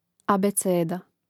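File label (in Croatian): "abeceda", Croatian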